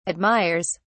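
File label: "admires", British English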